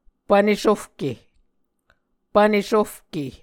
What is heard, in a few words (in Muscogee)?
"pvne sofke", Muscogee